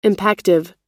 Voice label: "impactive", pronounce